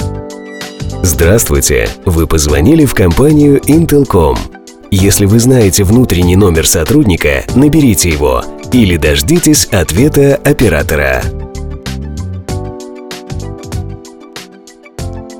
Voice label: IVR voice